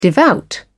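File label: "devout", British English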